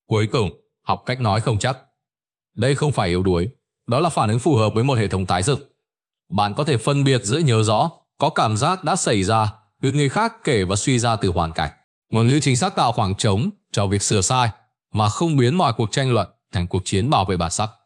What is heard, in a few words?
narration